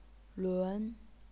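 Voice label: "lun", second tone, chinese pinyin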